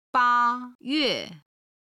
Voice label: "ba1yue4", Chinese